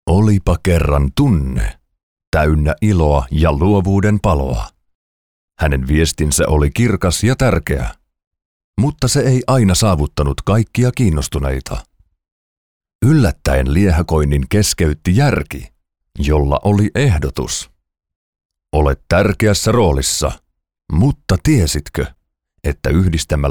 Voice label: audiobook narration storyteller

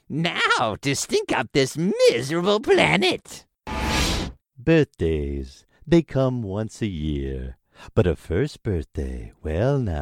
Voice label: Goblin Narrator Surfer Dude; Shrek

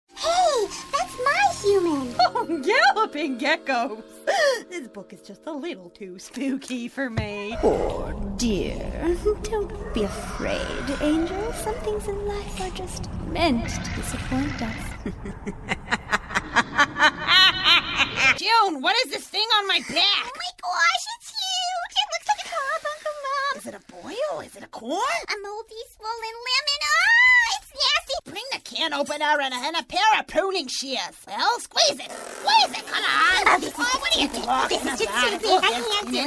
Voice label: animation voiceover

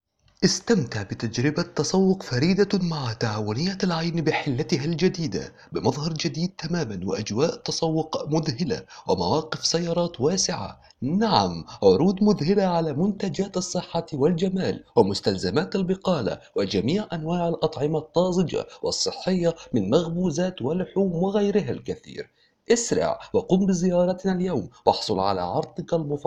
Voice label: Hyper Market announcement radio

